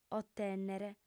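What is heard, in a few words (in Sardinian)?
"otènnere", female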